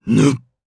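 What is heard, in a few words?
Vox Casting, Japanese; kingsraid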